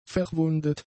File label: "verwundet", German; adjectives